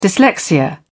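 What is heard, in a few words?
"dyslexia", British English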